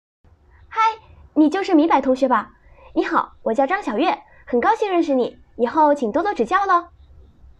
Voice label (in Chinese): 日常戏感练习开朗